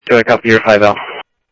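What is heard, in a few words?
Sheriff West County, scanner audio trunk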